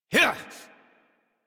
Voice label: Horse Sprint Adult; Warframe; Duviri

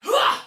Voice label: Attack, Soldier Female, Battle; Sinecure

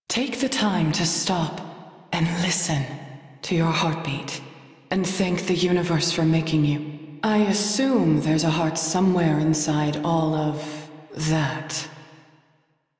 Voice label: Crew Idle, Female; Dialog; en; Warframe; Railjack Crew; New Loka

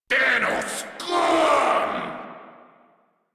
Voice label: Player Spotted, Grineer Male; Warframe

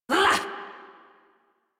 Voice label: Adult Operator, Melee Swing, en; New War

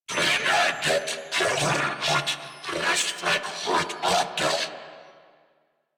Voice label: Attack Grineer Male; New War; Narmer

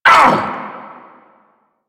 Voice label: Vocal Pain

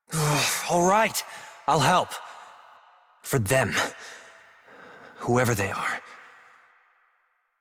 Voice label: Operator Voice; Warframe; Jade Shadows Quest

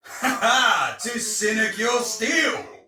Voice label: See Ally Hit, Soldier Male; Dialog; Barks; Battle; Sinecure

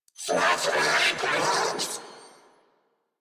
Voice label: Reload, Female; en; Warframe; New War; Narmer Grineer

